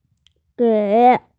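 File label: "gei3", Mandarin Chinese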